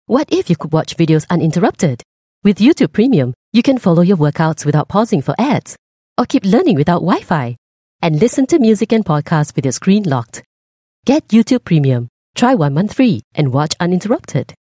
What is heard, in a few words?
Asian English; YouTube Premiurm